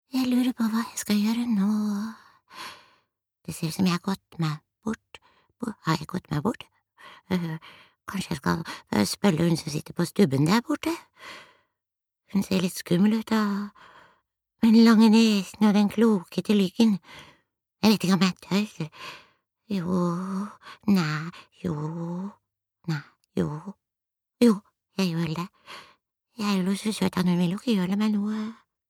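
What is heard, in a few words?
Norwegian dub character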